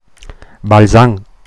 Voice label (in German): Balsan Mundart